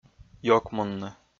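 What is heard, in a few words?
"ёкмунны", Komi, male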